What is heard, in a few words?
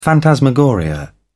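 "phantasmagoria", English, British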